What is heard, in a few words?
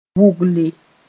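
"wʋgle", Mooré; to chew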